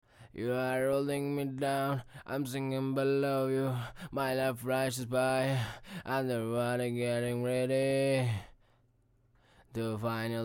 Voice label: acapella, HH 128 BbM